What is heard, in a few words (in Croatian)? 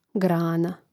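"grana", hrvatski; mreznik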